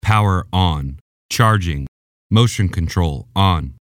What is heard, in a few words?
voice man